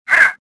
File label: pain; XBOX; worker